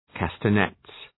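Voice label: "castanets", English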